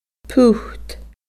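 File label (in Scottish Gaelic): "put", Scottish Gaelic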